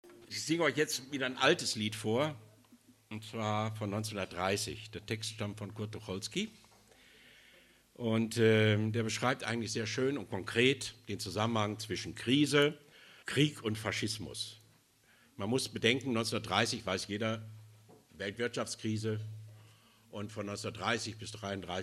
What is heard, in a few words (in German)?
ansage; abgesang